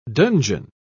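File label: "donjon", British English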